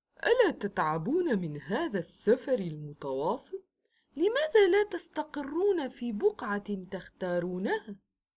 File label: arabic animation